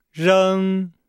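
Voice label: "reng", Chinese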